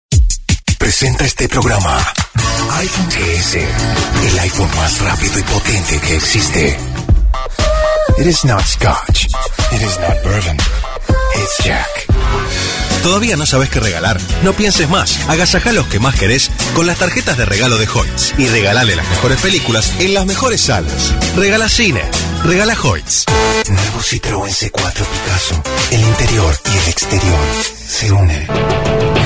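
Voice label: latam male commercial; voice demos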